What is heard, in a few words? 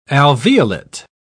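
"alveolate", British English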